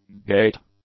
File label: "gait", English; nouns